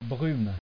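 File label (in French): "brume" French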